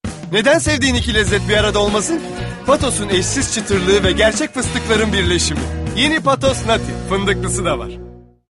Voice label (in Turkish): enerjik tempolu, seslendirme